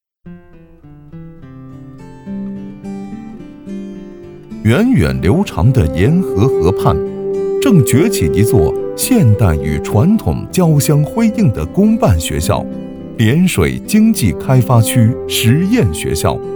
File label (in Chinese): 大气深沉学校